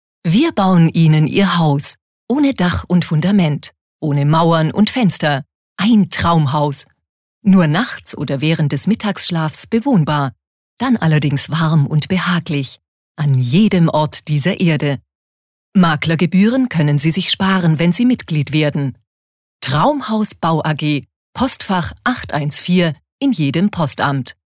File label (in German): Werbung, Freundlich